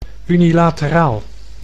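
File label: "unilateraal", Dutch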